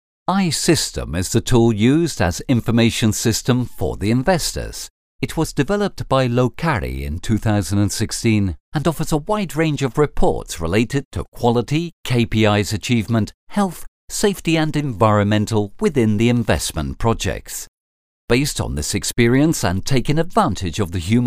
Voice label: locutor ingles english voiceover; English British male voice over